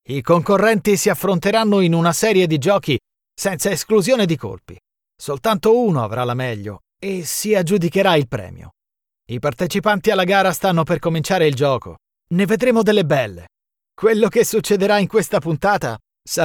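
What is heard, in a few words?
Italian, male, commercial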